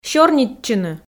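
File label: "сёрнитчыны", Komi, female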